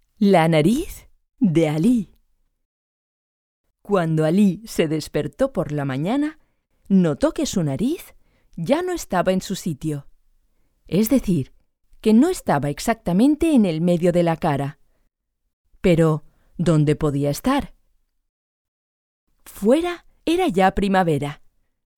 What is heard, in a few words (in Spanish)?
es audiolibro